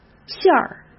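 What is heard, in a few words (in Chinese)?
"馅儿", Mandarin Chinese; hsk; chinese-flashcards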